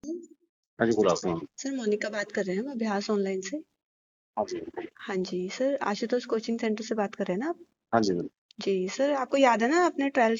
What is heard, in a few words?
call recording